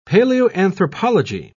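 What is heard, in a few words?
"paleoanthropology", British English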